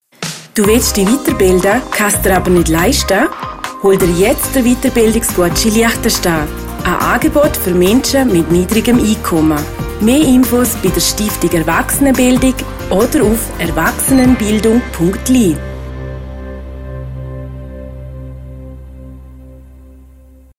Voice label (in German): Radio Spot